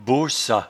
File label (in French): "bossat", patois jura